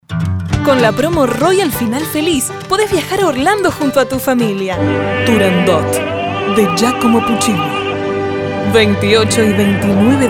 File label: locutora uruguaya uruguayan voiceover, Locutores con acento rioplatense; locutora nativa de Uruguay